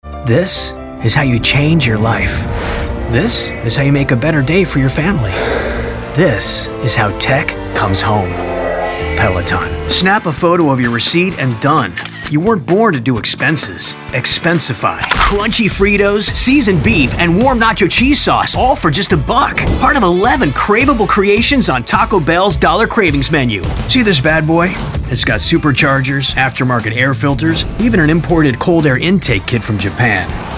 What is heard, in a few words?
Commercial